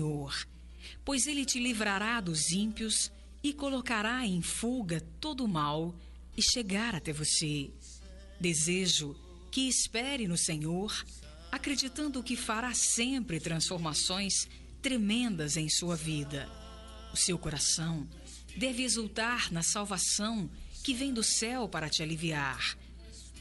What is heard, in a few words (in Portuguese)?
Aniversário de Irmã, Voz Feminina, gospel; Telemensagens para todas as ocasiões